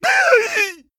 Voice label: Goblin Emote Death